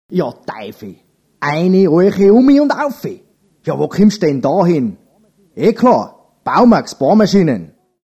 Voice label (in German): Tiroler Dialekt